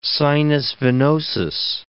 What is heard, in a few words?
"sinus venosus", English